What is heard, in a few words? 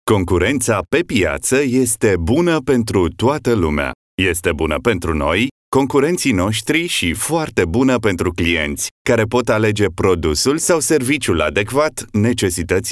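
Romanian male corporate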